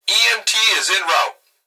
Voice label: Human Male Voice Fire Dispatch; EMT Is In Route; Emergency